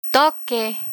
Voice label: "toque", Spanish